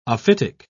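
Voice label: "ophitic", British English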